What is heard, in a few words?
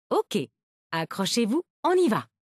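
loading zone, French (France); retaildemo; Windows; Mixed Reality Learning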